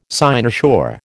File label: "cynosure", American